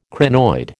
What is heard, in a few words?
"crinoid", American